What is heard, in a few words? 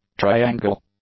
"triangle", English; nouns